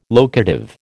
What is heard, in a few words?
"locative", American